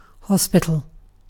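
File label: "hospital", British English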